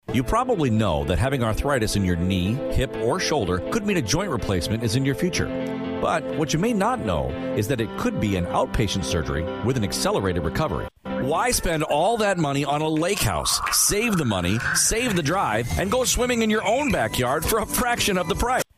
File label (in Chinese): 外籍英男 广告 轻快